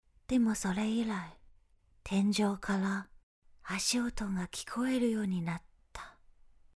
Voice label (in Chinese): 恐怖片旁白年轻声线